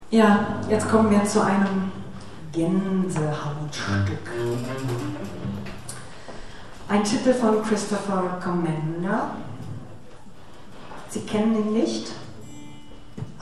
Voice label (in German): Ansage; Konzert 22. Hermeskeiler Kulturherbst (Johanneshaus, Hermeskeil)